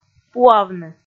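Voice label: "пуавны", Komi, female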